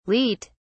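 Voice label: "leat", English, British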